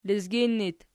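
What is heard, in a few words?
"lezgin" locative, Archi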